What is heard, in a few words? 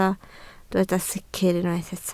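"sicr" Welsh, spoken word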